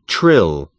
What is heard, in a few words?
trill